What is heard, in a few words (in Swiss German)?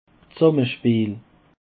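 "dsammespeel", Alsatian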